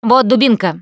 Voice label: melee weapons, russian, Survivor Voice Producer